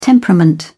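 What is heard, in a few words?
"temperament", British English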